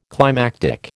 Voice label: "climactic", American